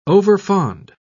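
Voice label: "overfond", British English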